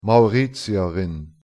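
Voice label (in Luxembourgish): "mauritierin", Luxembourgish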